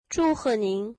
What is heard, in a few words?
Congratulations, mandarin